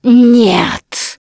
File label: reaction negative, russian, Survivor Voice Producer